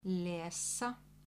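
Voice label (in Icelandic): "lesa", Icelandic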